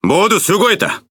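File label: Speech Quick Commands Good Game, Korean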